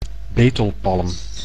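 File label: "betelpalm", Dutch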